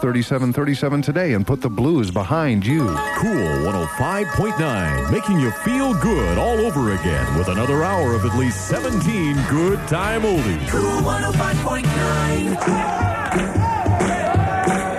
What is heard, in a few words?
Sweeper; WOCL